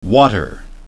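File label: "water", English pronunciation; ˡwɔːtəʳ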